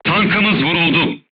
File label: voice message player tank hit, crew tanks turkish